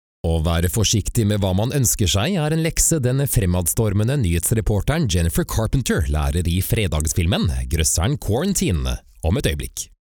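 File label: norwegian male narration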